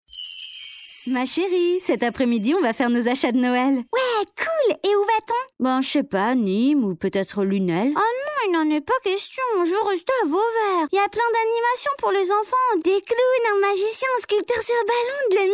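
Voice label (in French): French, female, kid character; voice demos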